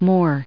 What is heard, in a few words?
"Moore", us